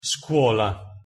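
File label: "scuola", Italian pronunciation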